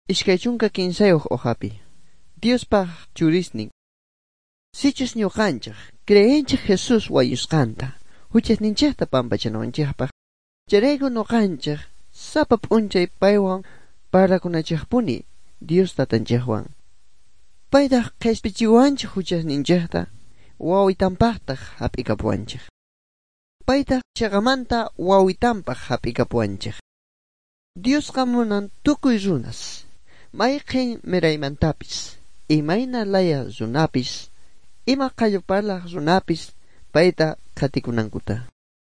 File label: good news quechua bolivian sucre; bible